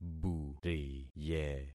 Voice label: "buriye", concat syllables; Affixing experiment

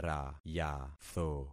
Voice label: "rayatho", concat syllables; Affixing experiment

